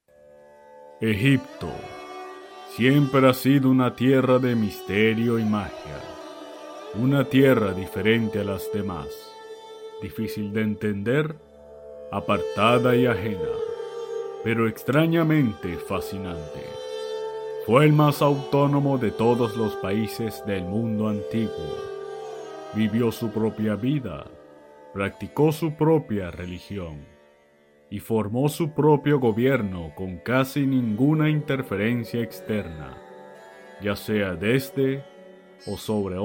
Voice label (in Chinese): 西班牙语男 浑厚, 外语; 小语种